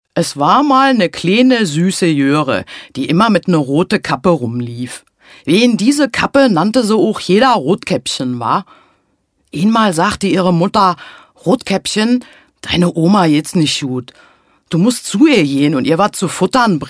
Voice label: Dialekt Berlin

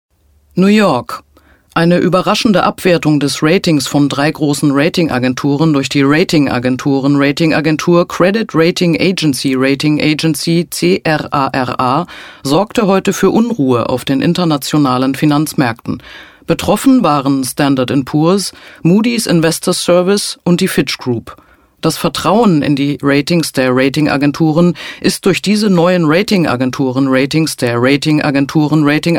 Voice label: Nachrichten; Ratingagenturen

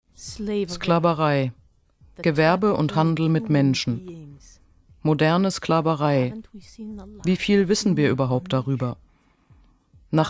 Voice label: Doku Intro voice over; ERF; Unverkäuflich!

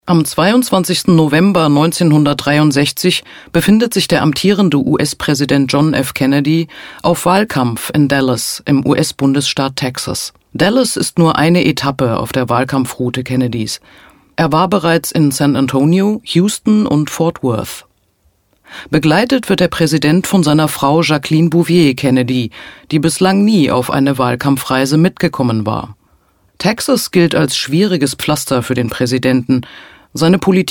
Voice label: Reportage; Kennedy

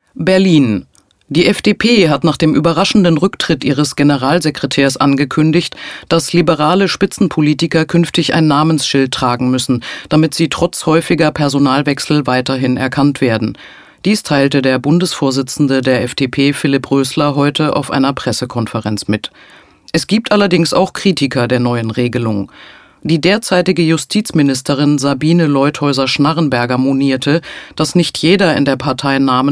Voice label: Satirische Nachrichten; FDP

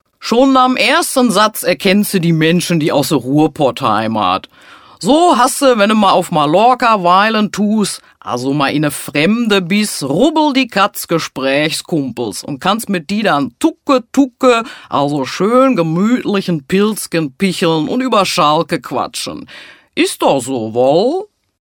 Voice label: Dialekt Ruhrpott

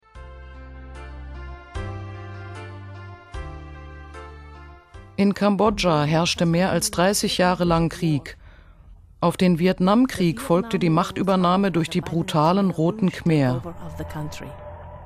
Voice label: Doku voice over; ERF; Unverkäuflich!

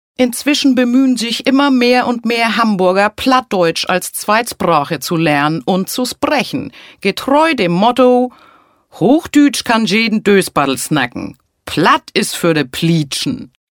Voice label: Dialekt Norddeutsch

